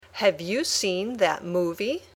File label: Dictation